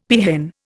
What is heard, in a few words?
"peahen", Indian; Dictionary